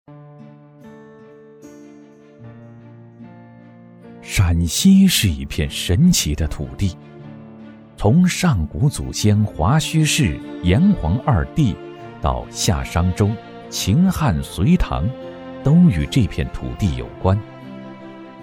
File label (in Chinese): 男, 记录片; 火锅